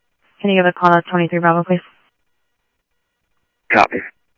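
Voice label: Phoenix police department, scanner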